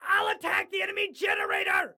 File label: Self Attack Generator, Excited Guy